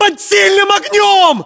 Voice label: inform suppressed generic, battlechatter ru; localized english